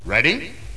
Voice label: "ready", male

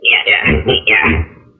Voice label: "yeah yeah uh yeah", voice; ooh ahh; BEAVIS

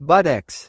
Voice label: "buttocks", Voice

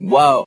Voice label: "woah", Voice

